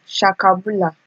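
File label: "shakabula", Nigerian Pidgin, female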